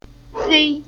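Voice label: "Hei", Chinese syllable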